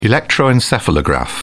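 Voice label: "electroencephalograph", British English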